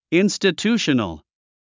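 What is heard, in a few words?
"institutional", English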